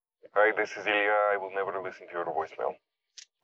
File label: voicemail; ringtones